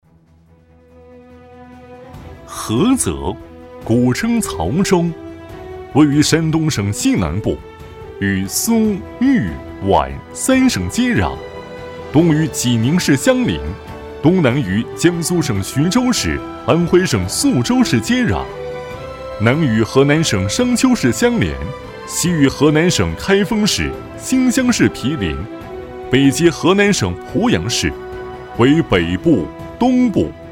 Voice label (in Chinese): 男 汇报 浑厚有力; 菏泽军分区